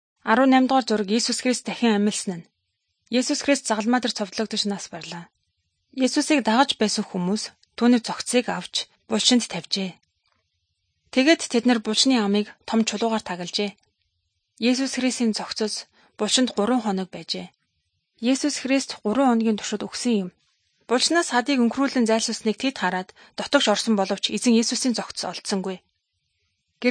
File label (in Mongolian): good news mongolian